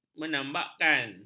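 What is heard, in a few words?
"menampakkan", Malay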